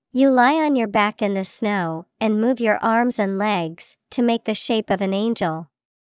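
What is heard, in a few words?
snow angel, English vocabulary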